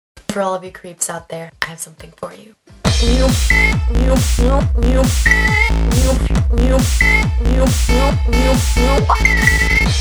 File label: babbling gibberish; weeklybeats 2014